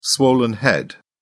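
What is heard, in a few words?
"swollen head", British English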